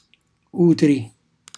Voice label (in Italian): "utri", Calabrese; otre; cucinacalabrese; glossario